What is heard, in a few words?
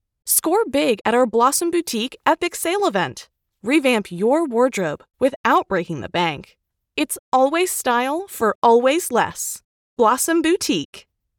Clothing Store Sale Excited